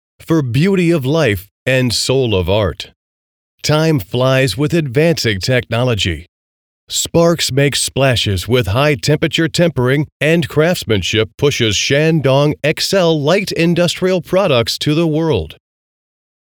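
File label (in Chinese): 外籍英男试音公司宣传大气浑厚; 山东某公司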